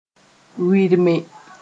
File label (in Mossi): "wɩrme", moore